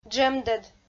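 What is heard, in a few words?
"джӧмдӧд", Komi, female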